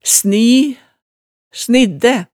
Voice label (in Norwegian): "sni", hemsedalsmaalet